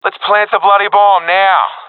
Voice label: friend plant bomb, professional epic; vo; Counter Strike Model Pack; CS:GO